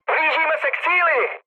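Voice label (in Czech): Czech near waypoint, mood medium; War Thunder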